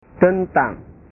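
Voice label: "tentang", Indonesian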